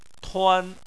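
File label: "tuan", Chinese, first tone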